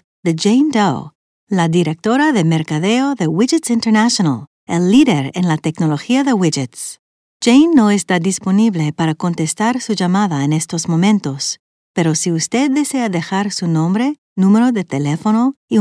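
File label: Spanish Spain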